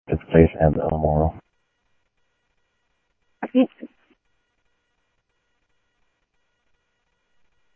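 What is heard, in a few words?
Mesa Police Department, scanner audio trunk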